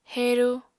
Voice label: "heru", apatani

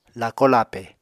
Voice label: "lako-lape", Apatani

